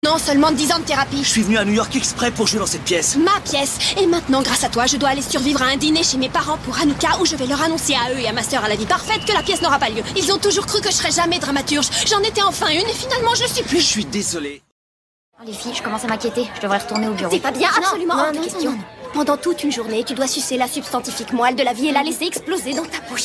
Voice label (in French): VOIX doublage comedie